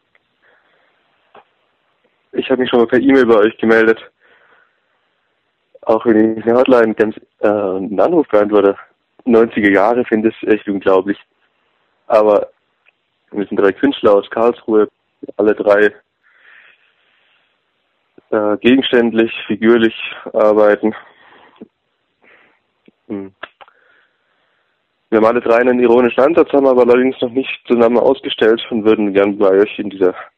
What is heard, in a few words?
Voicemail